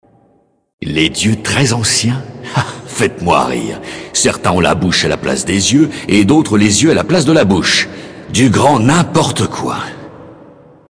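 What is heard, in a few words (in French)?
Male Blague, voix françaises; Elfe du Vide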